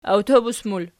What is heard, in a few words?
"awtobus", archi